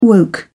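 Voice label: "woke", English, British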